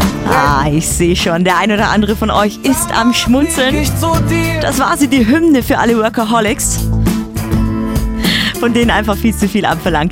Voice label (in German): Radio Moderation, voice